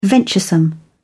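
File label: "venturesome", British English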